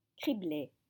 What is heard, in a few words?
"cribler", French